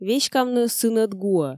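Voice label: Komi, female; веськавны сынӧд гуӧ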